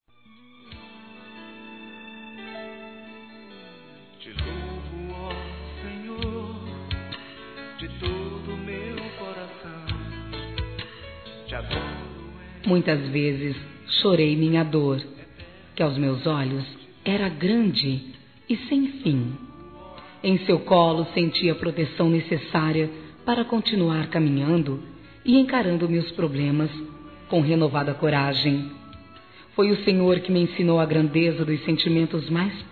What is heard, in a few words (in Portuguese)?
ANIV. PAI, Gospel, fem; Telemensagens; Telemensagens 2017